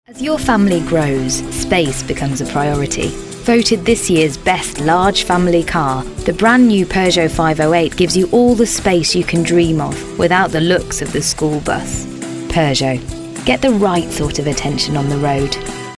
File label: commercial; PEUGEOT